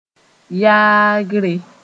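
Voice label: "yaagre", Mooré; taking aside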